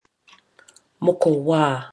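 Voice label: "mokowa", Māori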